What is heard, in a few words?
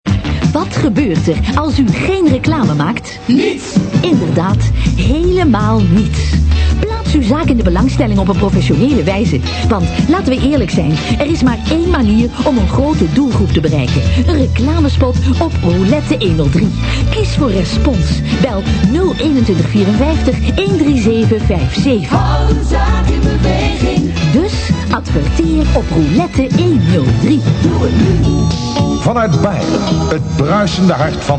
commercial